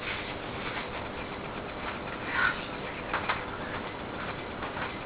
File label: Whisper; Jennings residence